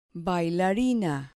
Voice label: "bailarina", Spanish